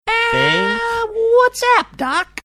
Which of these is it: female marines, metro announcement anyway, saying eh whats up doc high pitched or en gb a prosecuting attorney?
saying eh whats up doc high pitched